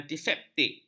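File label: "antiseptik", Malay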